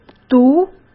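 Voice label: "毒", Mandarin Chinese; chinese-flashcards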